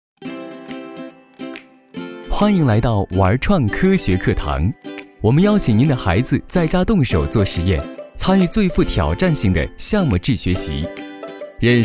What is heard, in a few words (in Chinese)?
男3 课件; 玩转科学课堂